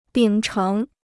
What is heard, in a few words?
"秉承", Chinese